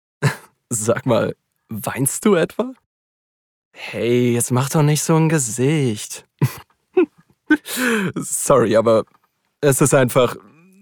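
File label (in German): Hörbuch Teen Romance, Audio Drama, German; LOFT VOICES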